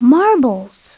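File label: "marbles", spoken word; Princess and the Pea; SoftKey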